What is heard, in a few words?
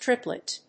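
"triplet", English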